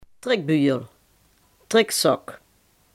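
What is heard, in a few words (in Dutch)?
"accordeon" Limburgish dialect word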